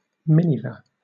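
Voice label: "miniver", British English; pronunciations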